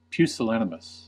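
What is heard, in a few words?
"pusillanimous", US English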